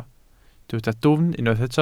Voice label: "dwfn", Welsh